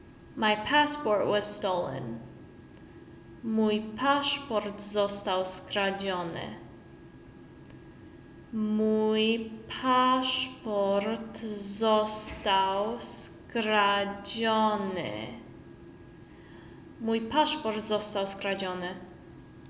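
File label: My passport was stolen, Polish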